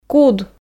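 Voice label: "куд", Komi, female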